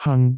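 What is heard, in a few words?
"hang4", Cantonese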